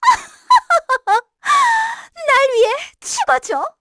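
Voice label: Vox Skill, Korean; Erze; kingsraid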